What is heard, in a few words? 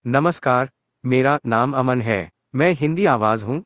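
Indian Hindi; hi-IN; iOS Sounds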